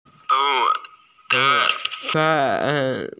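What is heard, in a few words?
"imitate", Mandarin; mo2fang3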